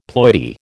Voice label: "ploidy", American

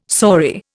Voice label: "saury", Indian

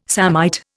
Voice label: "samite", Indian

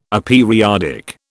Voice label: "aperiodic", British

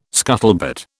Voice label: "scuttlebutt", British

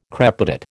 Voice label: "crepitate", American

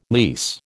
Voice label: "lease", American

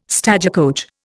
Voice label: "stagecoach", Indian

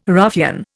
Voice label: "ruffian", Indian

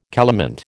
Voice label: "calamint", American

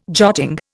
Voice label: "jotting", Indian

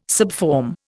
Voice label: "subform", Indian

